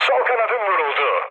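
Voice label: left wing, mood low, Turkish; speech; War Thunder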